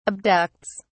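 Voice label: "abducts", British English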